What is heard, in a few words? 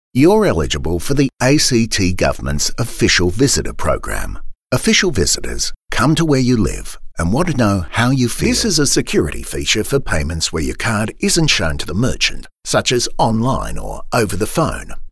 Corporate